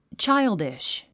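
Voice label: "childish", English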